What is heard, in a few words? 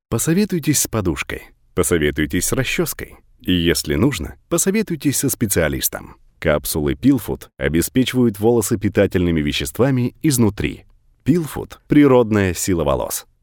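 russian male narration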